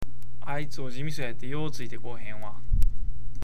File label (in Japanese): ojimiso, awaji ben